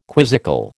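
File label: "quizzical", American English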